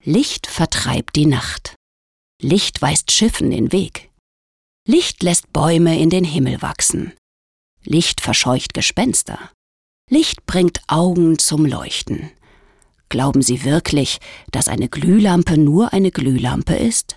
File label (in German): Werbung; Licht